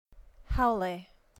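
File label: "haole", Hawaiian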